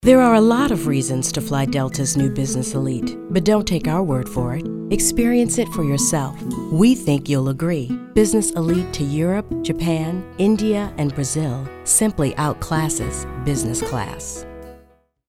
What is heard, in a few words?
COMMERCIAL; Delta Business Elite